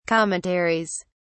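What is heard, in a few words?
"commentaries", English, British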